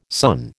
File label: "son", American